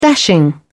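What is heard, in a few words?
"dashing", English, British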